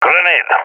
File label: throwing grenade, balkan; vo; agents